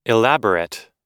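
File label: "elaborate", American English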